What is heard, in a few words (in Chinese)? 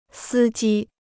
"司机", Chinese